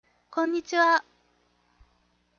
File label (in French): "konnichiwa" en Japonais